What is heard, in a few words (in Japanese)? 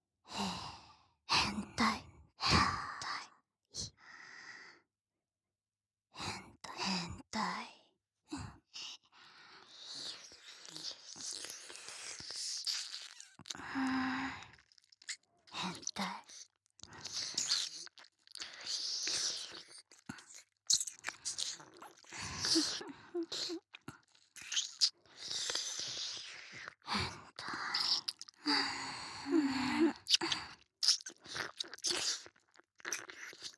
両耳, ASMR